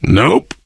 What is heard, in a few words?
initial meeting, survivor voice biker